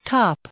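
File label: "top", English, female; noun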